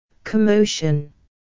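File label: "commotion", English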